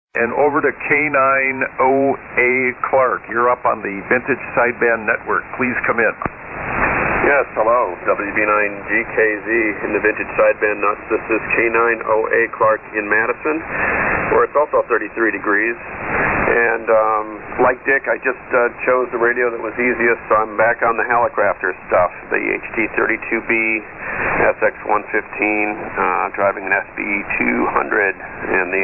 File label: Hallicrafters HT-32B SX-115 & 638 Mic SB-200 Amp; Madison,Wi.